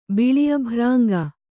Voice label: "ಬಿಳಿಅಭ್ರಾಂಗ", kannada